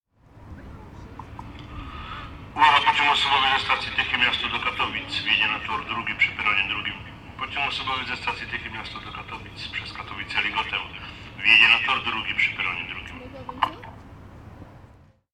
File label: Train Announcement